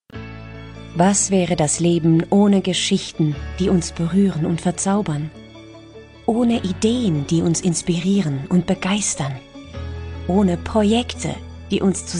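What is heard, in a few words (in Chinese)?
德语女声轻松陈述; 外籍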